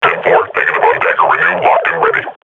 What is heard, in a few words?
combat idle, grunt; Half Life Model Pack